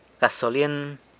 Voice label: "gasolyen" gas; san lucas quiavini